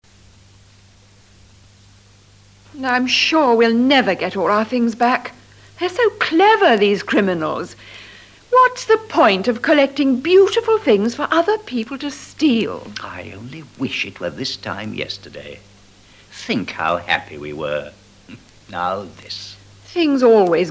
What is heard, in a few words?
dialog